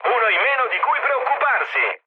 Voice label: player killed enemy mood med, speech it; War Thunder